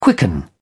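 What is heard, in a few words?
"quicken", British English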